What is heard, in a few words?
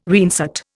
"reinsert", Indian